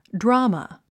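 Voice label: "drama", English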